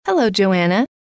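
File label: "hello", female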